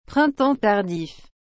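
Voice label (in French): "printemps tardif", French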